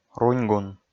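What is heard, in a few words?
"руньгун", Komi, male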